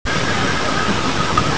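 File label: "seat", Mandarin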